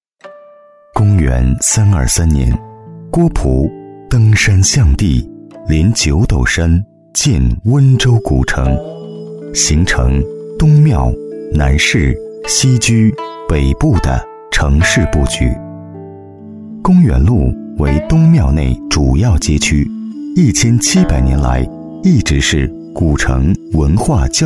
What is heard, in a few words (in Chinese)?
男 纪录片 视频解说; 人文历史; 古城视频解说